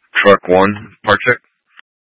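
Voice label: Incident Tac, Scanner audio recording; Incident Tac 1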